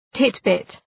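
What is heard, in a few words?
"titbit", English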